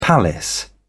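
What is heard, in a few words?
"palace", British English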